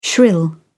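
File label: "shrill", British English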